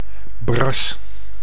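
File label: "bras", Dutch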